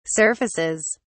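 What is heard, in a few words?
"surfaces", British English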